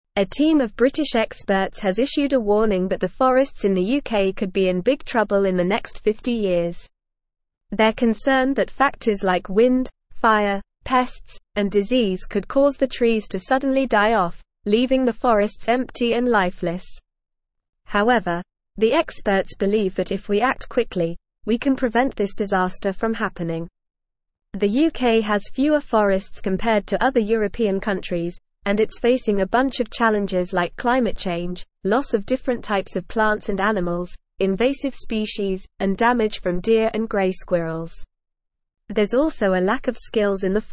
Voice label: English Upper Intermediate NORMAL Reading; UKs Forests Facing Catastrophic Collapse Experts; English Newsroom